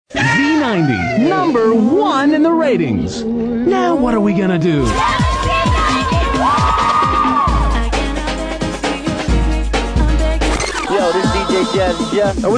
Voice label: promos